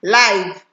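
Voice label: "life", Nigerian Pidgin, female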